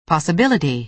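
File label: "possibility", American English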